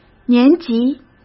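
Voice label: "年级", Mandarin Chinese; chinese flashcards; hsk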